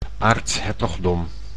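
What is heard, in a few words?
"aartshertogdom", Dutch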